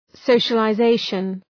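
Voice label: "socialization", English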